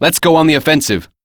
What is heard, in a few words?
Operator Voice; Battle Begins; en; Operator Mission